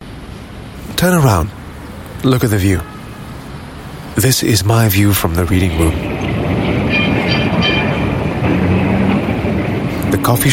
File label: Asian English Ghost walking Audio Tour